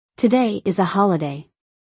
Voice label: Today is a holiday, english; Time and Routine